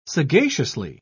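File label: "sagaciously", British English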